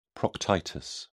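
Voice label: "proctitis", English, British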